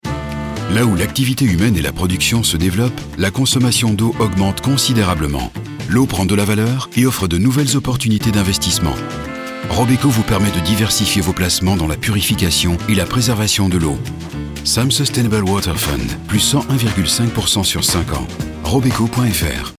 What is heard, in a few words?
advert low voice quiet straight corporate; robeco